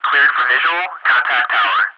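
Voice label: cleared for visual contact tower, English ATC Messages; DCS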